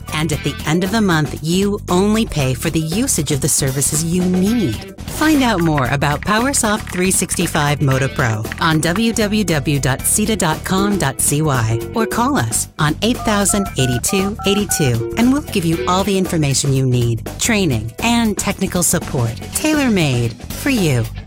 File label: Explainer Video; Cyta